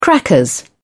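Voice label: "crackers", British English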